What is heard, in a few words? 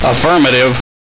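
"affirmative", male; gearbox; bandit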